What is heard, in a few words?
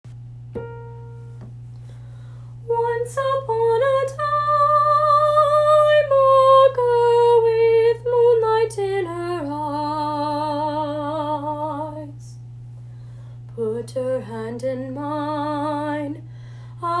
female once upon a time